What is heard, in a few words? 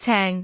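"ceng6", Cantonese; microsoftHiuGaai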